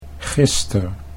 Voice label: "gister", Dutch